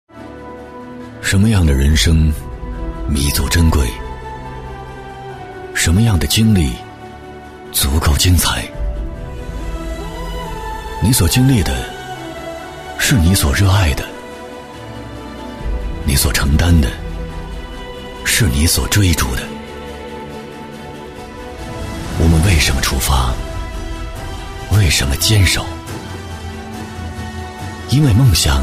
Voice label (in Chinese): 男319 励志广告片,高端品质 沉稳大气; 出发